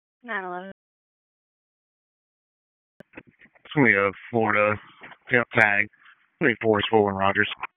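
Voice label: Wake Forest Police Dispatch, p25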